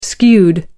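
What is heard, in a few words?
"skewed", British English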